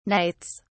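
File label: "knights", English, British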